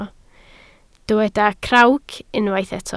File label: "crawc", Welsh